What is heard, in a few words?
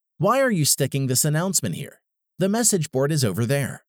sticking announcement